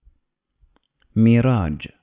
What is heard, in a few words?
"Miraj", Arabic